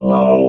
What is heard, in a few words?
"low", robot